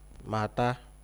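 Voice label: "matter", Nigerian Pidgin, male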